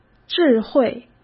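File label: "智慧", Mandarin Chinese; hsk; chinese-flashcards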